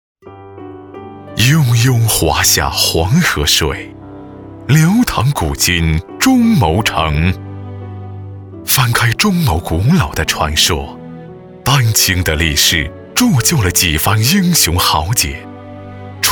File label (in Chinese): 男 国 大音; 政府-田园新梦美丽中牟